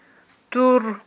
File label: "ттур", Aghul